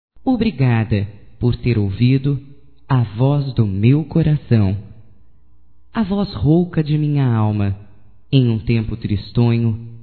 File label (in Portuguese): agradecimento, fem; Amizades; Telemensagens 2017